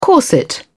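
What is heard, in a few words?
"corset", British English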